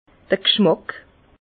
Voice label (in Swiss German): "gschmack", Bas Rhin; vin; l’odeur